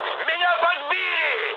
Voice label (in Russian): aircraft damaged, mood high, speech ru